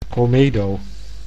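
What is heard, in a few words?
"comedo", Dutch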